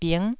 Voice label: "bing9", taiwanese syllable